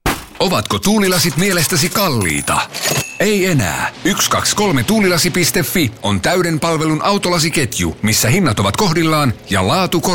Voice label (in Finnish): Radio City mainos